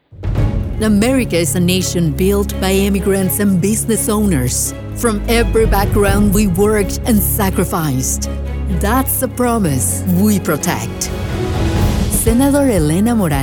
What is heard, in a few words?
Political ENG; Hispanic Political Voiceover